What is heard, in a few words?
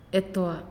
"Not", Naasioi